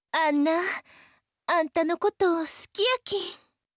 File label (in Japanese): 好きです 香川; 貴音